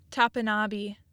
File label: "Topinabee", Michigan pronunciation